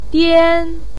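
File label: "dian1", Mandarin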